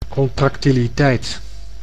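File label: "contractiliteit", Dutch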